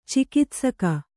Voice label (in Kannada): "ಚಿಕಿತ್ಸಕ", kannada